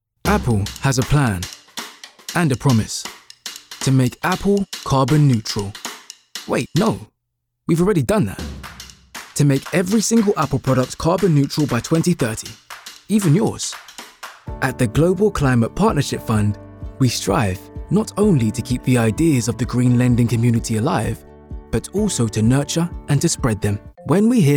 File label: male english corporate compilation; english southern accents